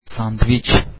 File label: sandwich, turkish